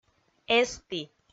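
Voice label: "эсті", Komi, female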